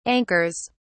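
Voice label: "anchors", English, British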